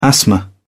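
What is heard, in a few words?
"asthma", British English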